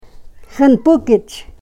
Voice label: "xanpukich", karuk